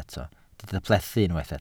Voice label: "plethu", Welsh

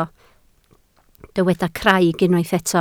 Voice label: "craig", Welsh

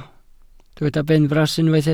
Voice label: "benfras", Welsh

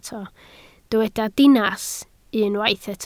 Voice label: "dinas", Welsh

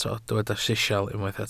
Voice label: "sisial", welsh

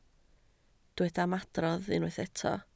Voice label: "ymadrodd", Welsh

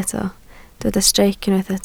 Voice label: "streic", Welsh

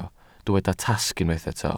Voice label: "tasg", Welsh

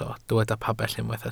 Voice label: "pabell", Welsh

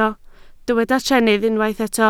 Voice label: "trennydd", Welsh

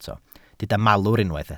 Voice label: "malwr", Welsh

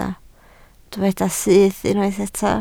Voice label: "syth", Welsh

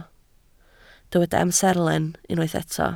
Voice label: "amserlen", Welsh

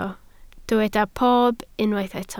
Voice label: "pob", Welsh

